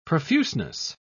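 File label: "profuseness", British English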